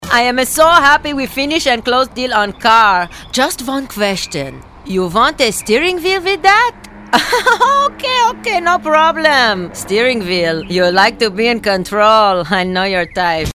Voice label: Russian